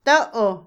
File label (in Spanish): "quién", Otomí, mujer; Cognición; Vocabulary; INALI